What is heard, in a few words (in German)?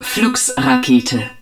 flux missile, german, hud